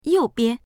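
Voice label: "you4bian", Mandarin Chinese; yòubian; 右边; 右（の方）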